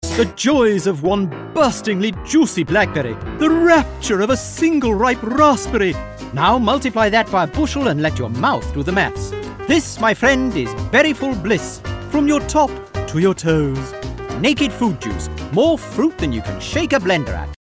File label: Commercials